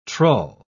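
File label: "trull", British English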